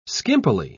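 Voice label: "skimpily", British English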